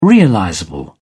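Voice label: "realizable", British English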